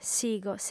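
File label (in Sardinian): "sigo", female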